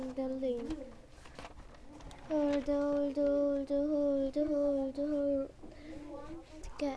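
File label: "hold", spoken word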